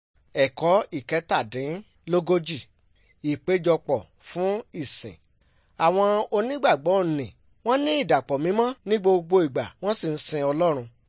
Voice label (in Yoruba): good news yoruba